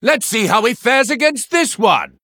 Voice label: Male Human Hero Power; Blackmoore; Thrall Mission1